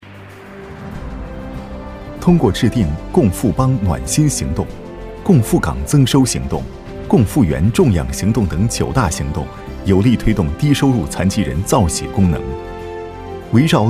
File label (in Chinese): 男 宣传; 残疾